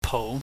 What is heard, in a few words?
"pou", Chinese